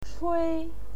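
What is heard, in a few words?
"chui", Mandarin Chinese, first tone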